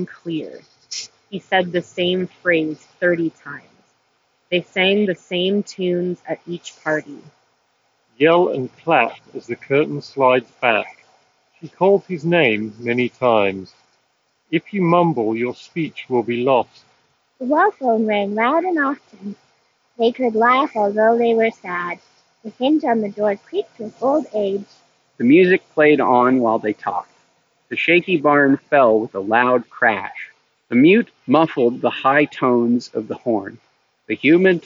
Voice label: Street microphone sample; Sonos ACE